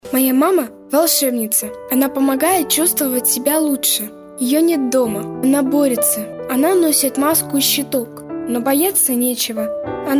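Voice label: 12 years Russian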